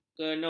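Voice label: "kenur", Malay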